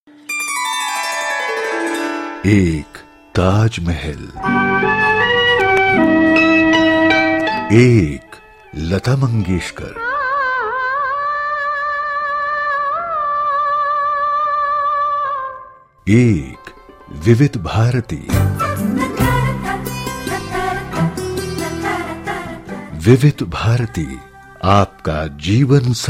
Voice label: PROMO

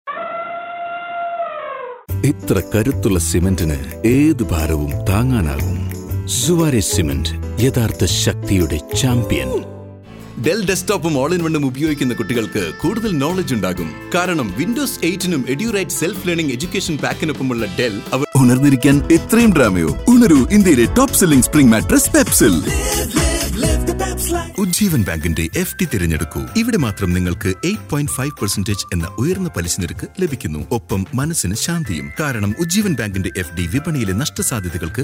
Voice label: malayalam

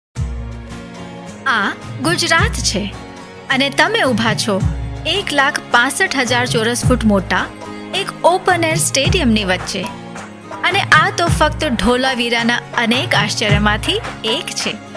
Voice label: gujarati; dholavira

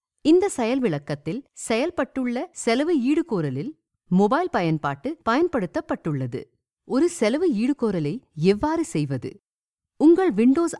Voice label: Tamil Explainer Video

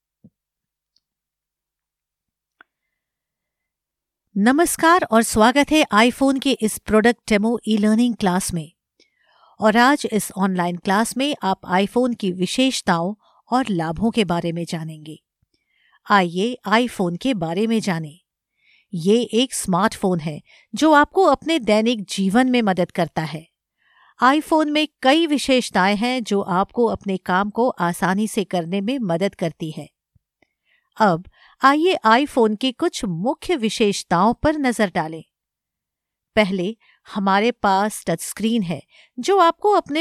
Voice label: training english

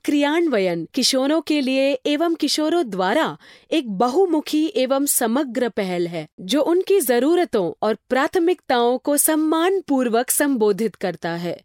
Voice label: Hindi documentary narration

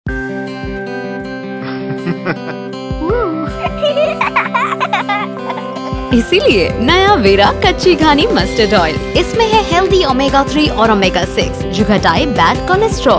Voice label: Female

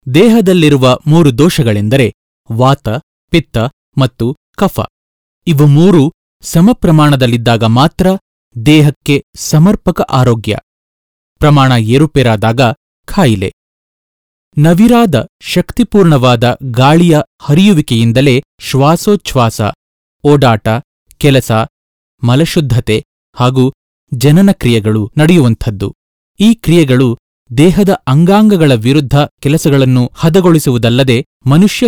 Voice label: Documentry Kannada

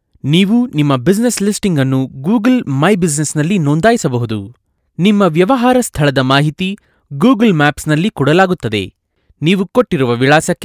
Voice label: Explainer kannada

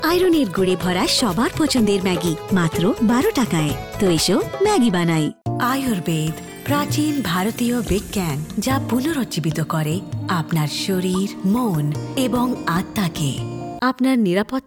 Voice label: Bengali vo

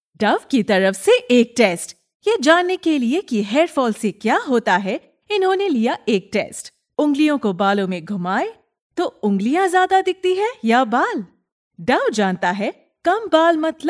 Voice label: ad hindi

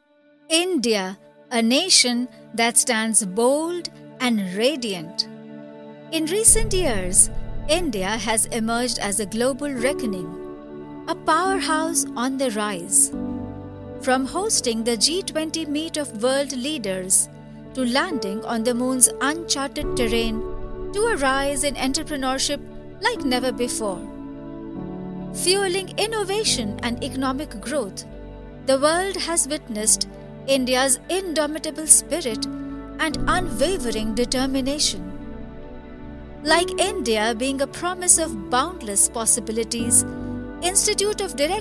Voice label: english corporate; IOD

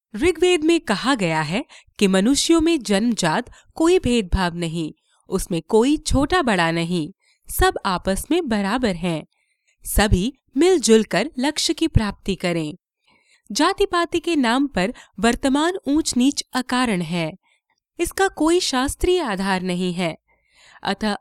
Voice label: Hindi

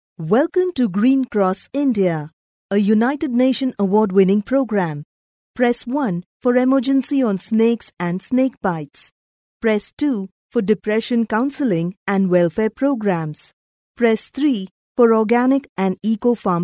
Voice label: ivr english

